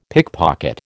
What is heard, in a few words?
"pickpocket", American